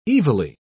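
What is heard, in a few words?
"evilly", British English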